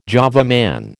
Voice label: "Java Man", American